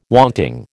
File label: "wanting", American